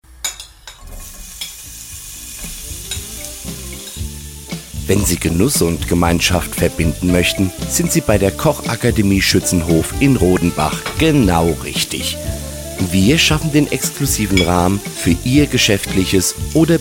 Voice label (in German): Werbung